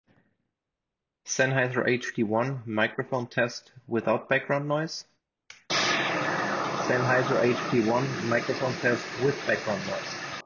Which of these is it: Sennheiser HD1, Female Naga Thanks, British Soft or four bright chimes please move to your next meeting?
Sennheiser HD1